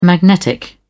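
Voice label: "magnetic", British English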